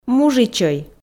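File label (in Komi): "мужичӧй", Komi, female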